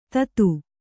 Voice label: "ಥತ್", Kannada

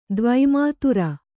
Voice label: "ದ್ವಿಮಾತ್ರ", Kannada

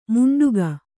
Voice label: "ಮುಂಡುಗ", Kannada

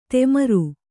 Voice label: "ತೆಮರು", Kannada

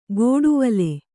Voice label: "ಗೋಡುವಲೆ", Kannada

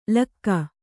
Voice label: "ಲಕ್ಕ", kannada

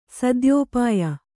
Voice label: "ಸದ್ಯೋಪಾಯ", Kannada

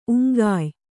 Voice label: "ಉಂಗಾಯ್", Kannada; uŋgāy

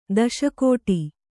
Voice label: "ದಾಸಗೌಡ", Kannada

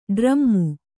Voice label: "ಡ್ರಾಮು", Kannada

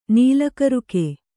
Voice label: "ನೀಲಕರುಕೆ", kannada

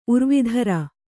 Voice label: "ಊರ್ವೀಧರ", Kannada

